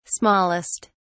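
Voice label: "smallest", English, British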